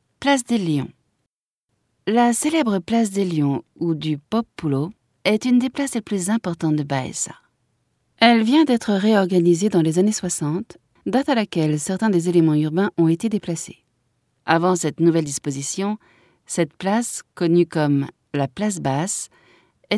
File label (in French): audioguia, frances; audioguia baeza